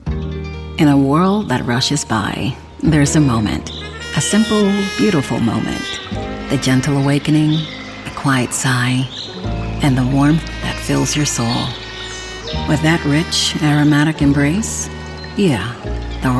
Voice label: Television Spots, Upbeat Cool Friendly, Female Voice; PEETS COFFEE